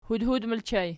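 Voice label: "hudhud" ergative plural, Archi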